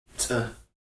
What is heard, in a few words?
"c̓", Heiltsuk